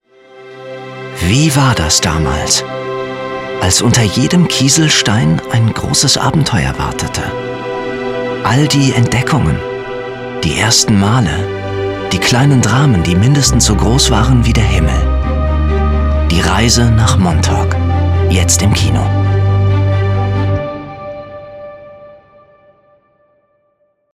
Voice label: Trailer Drama Arthouse, German; voice